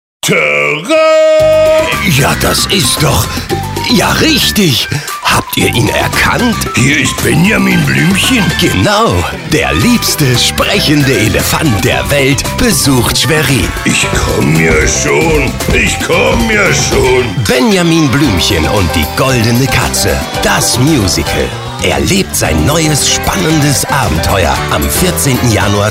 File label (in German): Werbung